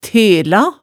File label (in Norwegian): "tela", hemsedalsmaalet tale